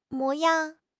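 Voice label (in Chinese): "模样", Chinese; HSK6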